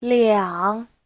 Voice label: "liang3", chinese